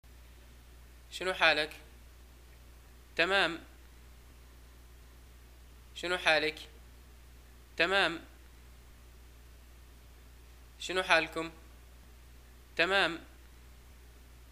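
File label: greeting how are you, Libyan arabic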